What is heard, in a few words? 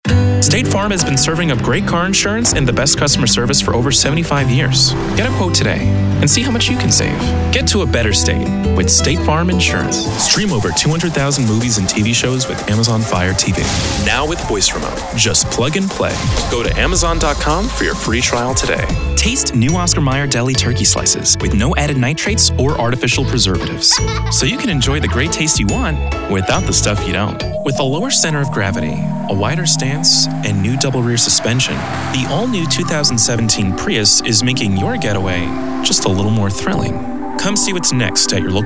Commercial Demo